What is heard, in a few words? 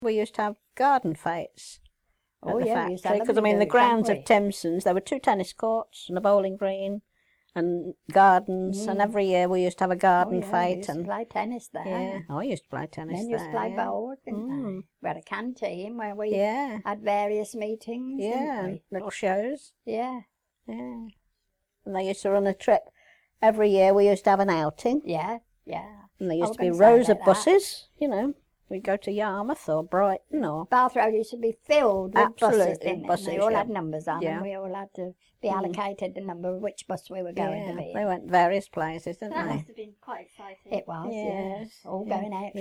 Boot and Shoe Industry Interviews; Factory Activities Outings Manor House Museum Kettering